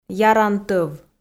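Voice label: "яран тӧв", Komi, female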